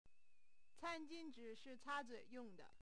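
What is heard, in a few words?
can1jin1zhi3 sentence, Chinese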